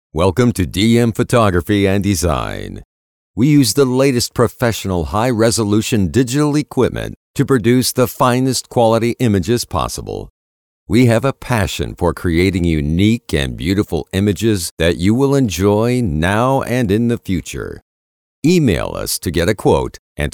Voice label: COMMERCIAL; DM Photo Design